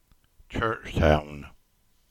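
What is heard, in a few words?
"Churchtown", English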